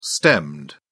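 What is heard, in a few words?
"stemmed", British English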